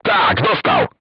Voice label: voice message direct hit, crew tanks polish

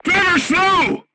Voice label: voice message drive slowly, crew tanks portuguese

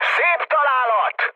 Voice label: bomb success, mood med, speech hun; War Thunder

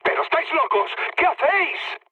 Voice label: player killed ally, mood low, speech; War Thunder

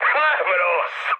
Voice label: trick, speech hun; War Thunder

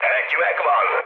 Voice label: player killed enemy, mood high, speech hun

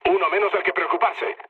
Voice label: player killed enemy, mood low, speech

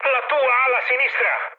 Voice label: left wing mood med, speech it; War Thunder

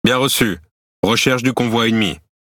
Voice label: MOVE RIDGE, FR; World Of Warships

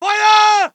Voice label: voice ger infantry

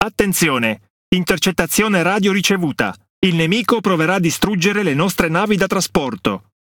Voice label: MESSAGE ENEMY DAMAGE TRANSPORT START, IT; World Of Warships

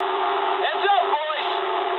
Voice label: eng near waypoint mood low, speech eng

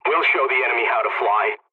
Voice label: pilot mission start, english; War Thunder

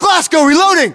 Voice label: US inform reloading, battlechatter, english

